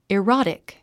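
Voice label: "erotic", English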